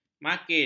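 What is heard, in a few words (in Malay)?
"makin", Malay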